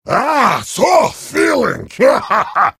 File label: heavy sandwich taunt, vo